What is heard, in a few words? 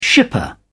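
"shipper", British English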